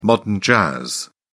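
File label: "modern jazz", British English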